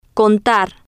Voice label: "contar", Spanish

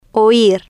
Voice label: "oir", Spanish

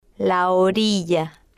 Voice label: "la Orilla", spanish

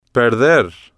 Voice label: "perder", Spanish

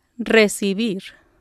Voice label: "receive", Spanish

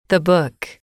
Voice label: "the book", English

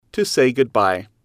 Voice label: "to say goodbye", English

